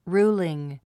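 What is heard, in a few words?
"ruling", English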